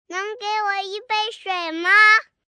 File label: Mandarin Water, young child, Mandarin, (Can I have a glass of water ), human voice; Talking Sound Effects; Questions; kid, speak, talk